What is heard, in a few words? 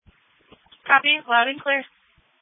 Police Tactical Encrypted; sdr